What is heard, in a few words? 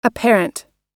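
"apparent" US English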